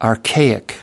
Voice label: "archaic", us

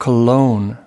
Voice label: "cologne", us

